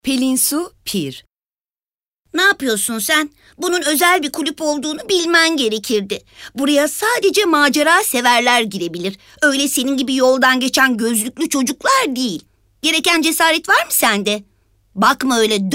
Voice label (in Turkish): animasyon